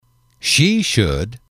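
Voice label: "she should", English, male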